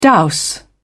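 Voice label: "dowse", British English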